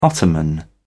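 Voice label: "ottoman", British English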